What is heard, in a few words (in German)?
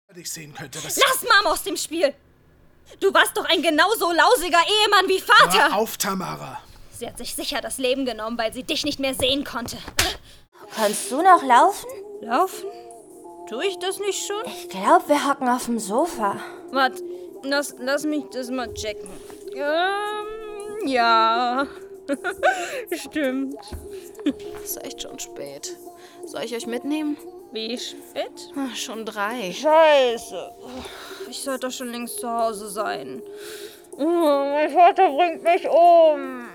Hoerspiel; Ein schneller Eindruck; Drama, Baby!